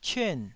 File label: "cyuan4", Taiwanese syllable